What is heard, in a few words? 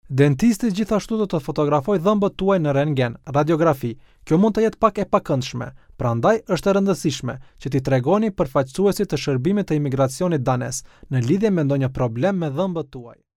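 Albanian, male, narration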